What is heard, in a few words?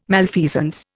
"malfeasance", Indian